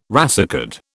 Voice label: "racecard", British